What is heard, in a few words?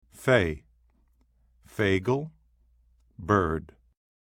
fey